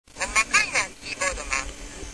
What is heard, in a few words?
kansai